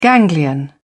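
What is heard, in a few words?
"ganglion", English, British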